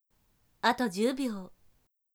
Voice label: 剩10秒, 女生; 计时30秒

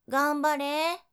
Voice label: 加油ー, 女生 少女

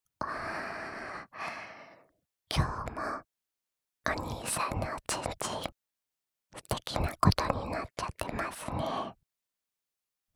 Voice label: 自慰辅助用套弄指示音 右耳

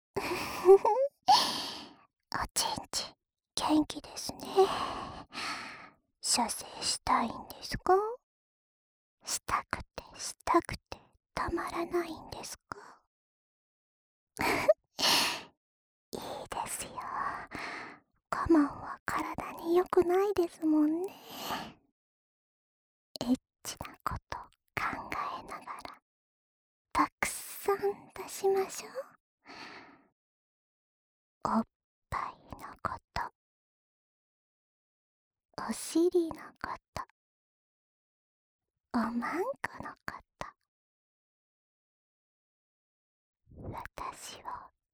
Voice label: 自慰辅助用套弄指示音 左耳; 云雀Ver

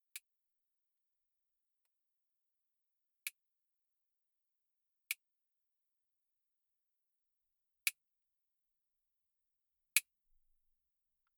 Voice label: 一边美甲一边闲聊, ASMR

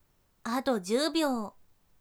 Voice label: 还剩10秒, 女生; 少女; 计时30秒

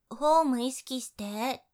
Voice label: 注意姿势, 女生为你进行健身计数的语音 少女

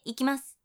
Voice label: 要上了, 女生

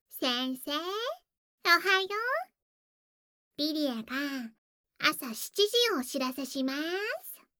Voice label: 系統語音 早上7點報時; 莉莉亞

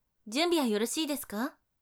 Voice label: 准备好了吗？, 女生 姐姐

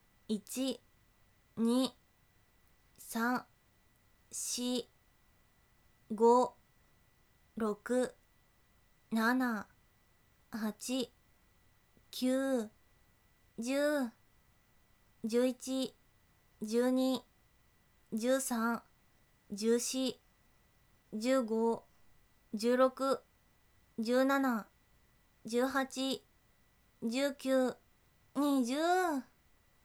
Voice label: 普通20次, 女生为你进行健身计数的语音 少女; 仅计数

